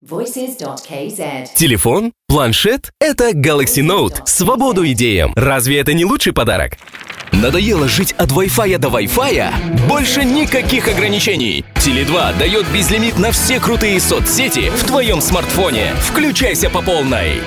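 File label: Russian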